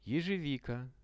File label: ezhevika, Russian; ежевика